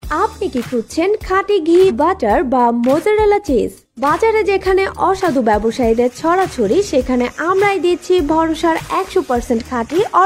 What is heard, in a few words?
promotion